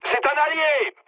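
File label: player friendly fire, mood high, French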